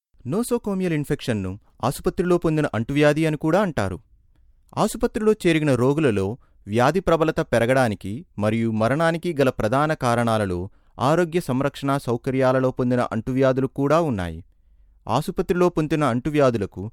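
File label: training video Telugu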